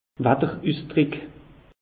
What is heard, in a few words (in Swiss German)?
"watterusdrick", alsace; meteo